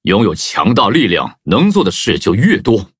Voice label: level up, zh-hant; cookie run

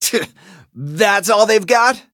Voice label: battle en; cookie run

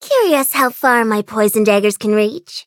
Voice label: eng menu tap; White Peach

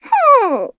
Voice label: hit, en; Cookie Run

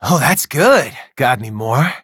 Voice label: levelup, en; Cookie Run

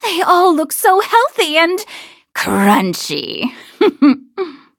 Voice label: levelup en; cookie run

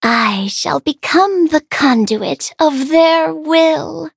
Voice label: level up, en

